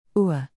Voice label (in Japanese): イギリス英語ʊəの発音